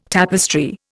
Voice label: "tapestry", Indian